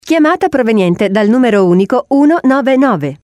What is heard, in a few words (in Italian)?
messaggio annuncio